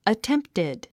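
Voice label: "attempted", English word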